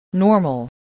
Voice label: "normal", voice, spelling